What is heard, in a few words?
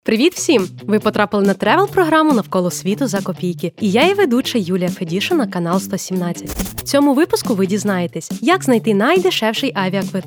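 ukrainian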